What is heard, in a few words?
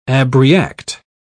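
"abreact", English, British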